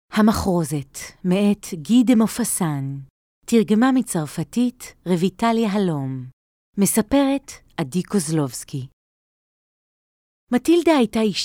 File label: Hebrew audiobooks